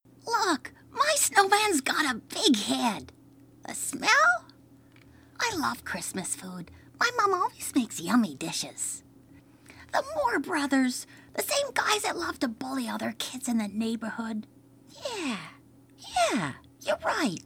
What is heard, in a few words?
Animation Gaming Voices